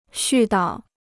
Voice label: "絮叨", Chinese